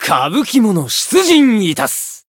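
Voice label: chant, Japanese; shigure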